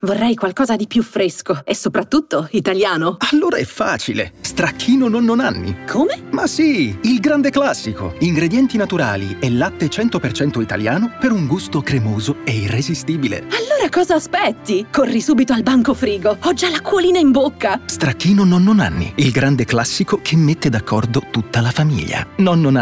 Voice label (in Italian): radio